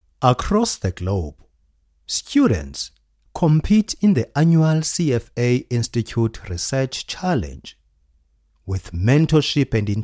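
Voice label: South African English Elearning; voice demos; GoLocalise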